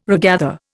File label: "regather", Indian